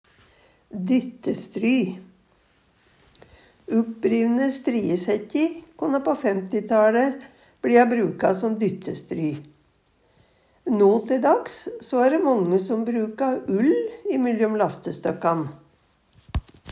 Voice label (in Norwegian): "dyttestry", Numedalsmål